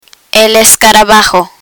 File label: "escarabajo", Spanish